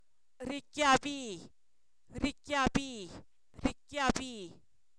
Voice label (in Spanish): cuarteamiento, Zapoteco, san miguel albarradas; objetos inanimados